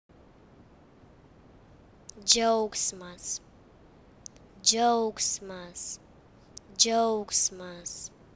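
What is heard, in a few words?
"joy", Greek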